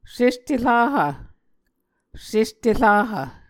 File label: "seste rahv", Muscogee